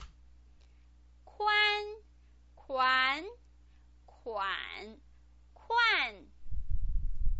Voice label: "kuan", Chinese pinyin